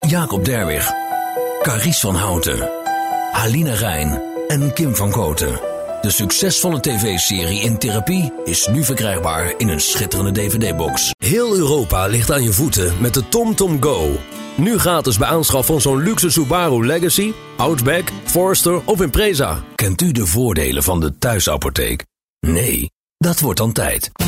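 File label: Dutch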